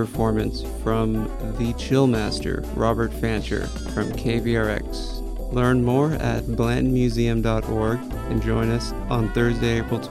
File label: Art Museum Mix Party PSA; KVRX; Blanton